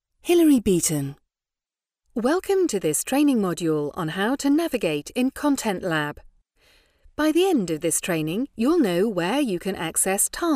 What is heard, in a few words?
elearning